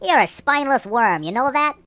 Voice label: "Coward", Mobster; hedgewars

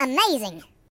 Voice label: "Amazing", voices; hedgewars

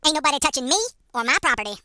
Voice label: Flawless, Hill Billy; hedgewars

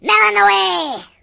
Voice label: Melon, voices Pirate; hedgewars

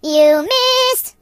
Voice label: "Missed", Singer

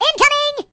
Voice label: "Incoming", voices; hedgewars

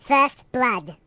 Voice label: "First blood", voices; hedgewars

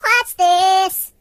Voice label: "Watch this", Singer; hedgewars

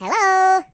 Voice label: "Hello", voices; hedgewars

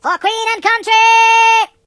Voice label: "Kamikaze", British; hedgewars

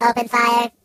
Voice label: "Fire", Robot

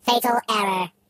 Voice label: "So long", Robot voices; hedgewars

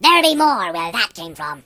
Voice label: First blood, voices Pirate

